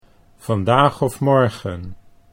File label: "vandaag of morgen", Dutch